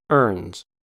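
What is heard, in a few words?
"ernes", US English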